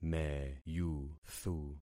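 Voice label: "meyuthu", concatenated syllables; Affixing experiment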